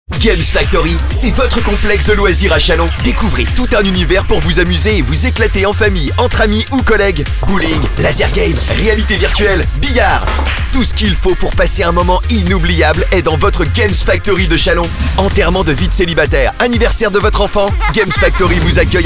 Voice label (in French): Spot; Games Factory Chalon